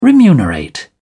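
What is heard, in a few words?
"remunerate", English, British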